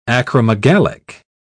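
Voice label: "acromegalic", British English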